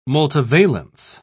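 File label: "multivalence", British English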